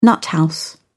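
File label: "nuthouse", English, British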